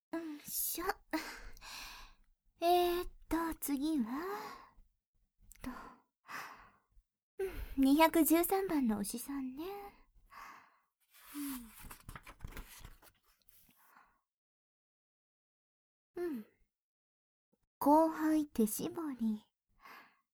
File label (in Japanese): アドリブ＆バイノーラル搾精(SEなし); 搾精士さん♀のミルキングパーラー2 ～牛♂ミルクの搾られ牧場～; 特濃搾精編